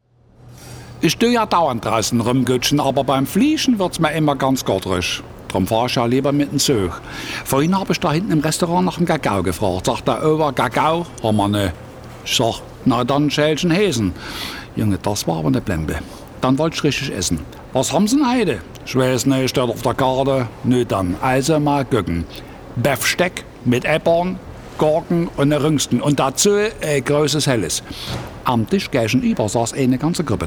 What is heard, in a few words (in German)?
Deutsche Dialekte Sachse